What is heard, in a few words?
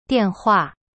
"电话", Chinese; dian hua